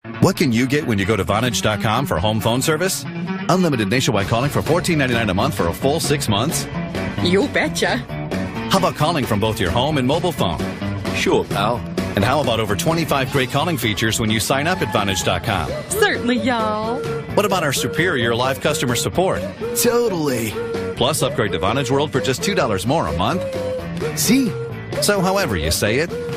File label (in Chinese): 外籍男 商业广告 Commercial; VONAGE